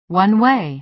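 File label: "one-way", American English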